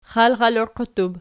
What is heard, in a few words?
"xalxaloqiattub", archi; xalxaloqiattut